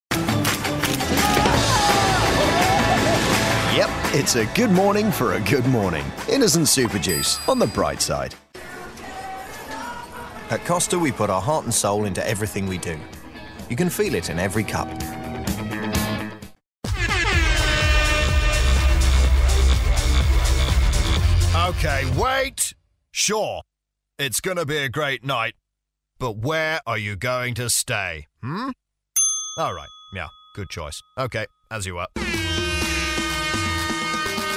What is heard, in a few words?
Commerical